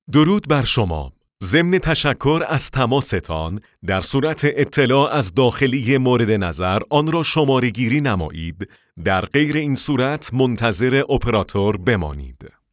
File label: men